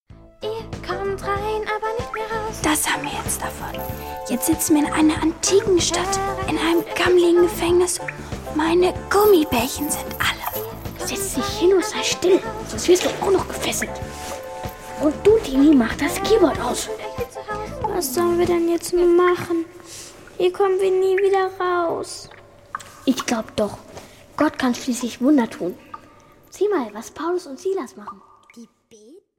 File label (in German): Hoerszene; Die Gute Nachricht